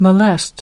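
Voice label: "Molest", English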